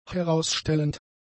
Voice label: "herausstellend", German; adjectives

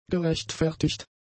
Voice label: "gerechtfertigt", German; adjectives

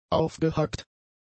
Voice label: "aufgehackt", German; adjectives

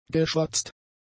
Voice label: "geschwatzt", German; adjectives

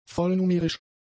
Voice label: "vollnumerisch", German; adjectives

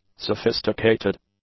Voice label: "sophisticated", english; adjectives

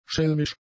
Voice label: "schelmisch", german; adjectives

